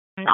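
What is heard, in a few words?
Dispatch 1, P25; WSO DISP 1; wake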